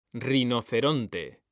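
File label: "rinoceronte", Spanish, male; mekanta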